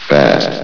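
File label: "fast", English, station announcer voice; S.P.L.U.R.T-Station-13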